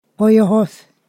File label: "while", Ponosakan